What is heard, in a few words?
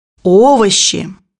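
"vegetables", Russian; bukvar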